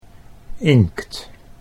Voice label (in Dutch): "inkt", Dutch